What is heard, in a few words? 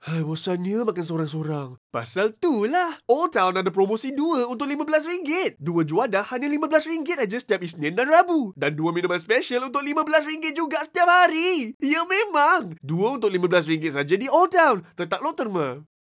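Bahasa Malaysia Fast radio commercial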